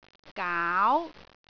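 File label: "gaau2", Cantonese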